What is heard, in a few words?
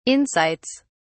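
"insights", British English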